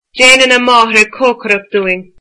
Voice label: her mother cooks for us, irish